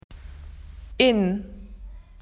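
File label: "in", Australian English; PictureDictionary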